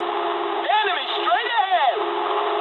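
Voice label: English enemy detected mood low; speech; War Thunder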